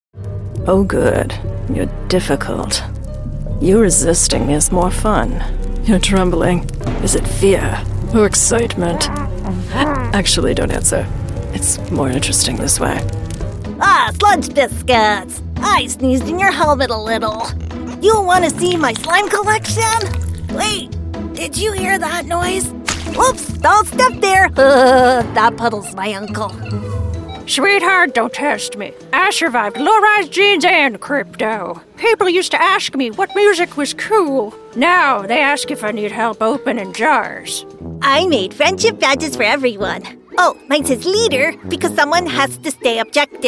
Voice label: Animation